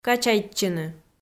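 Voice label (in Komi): "качайтчыны", Komi, female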